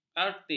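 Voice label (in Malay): "artik", Malay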